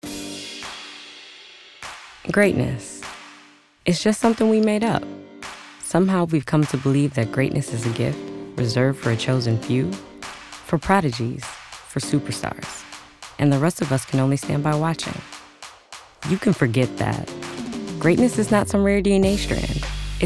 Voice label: commercial